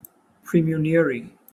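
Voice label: "praemunire", British English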